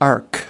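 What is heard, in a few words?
"arc", US English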